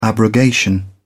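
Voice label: "abrogation", English, British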